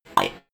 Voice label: robot voice five fx